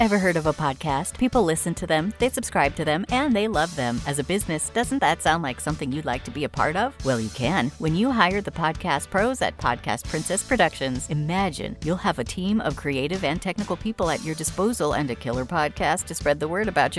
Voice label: Podcast Commercial Read